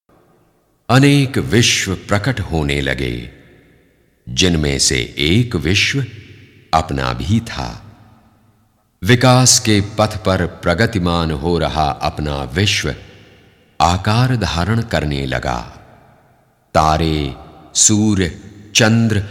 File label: commentary vishnu stuti